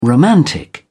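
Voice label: "romantic", English, British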